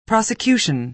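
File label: "prosecution", American English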